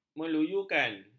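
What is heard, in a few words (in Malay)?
"meluyukan", Malay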